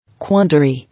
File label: "Quandary", English